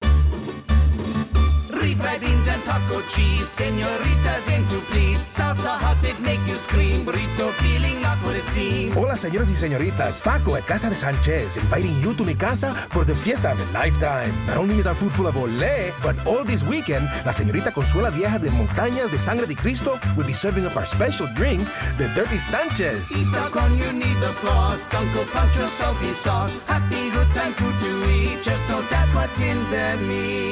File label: Commercial; Casa De Sanchez